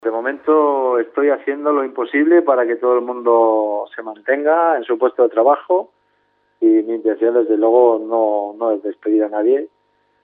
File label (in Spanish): noticias